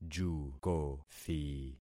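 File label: "jukothi", concatenated syllables; Affixing experiment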